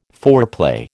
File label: "foreplay", American